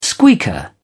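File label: "squeaker", British English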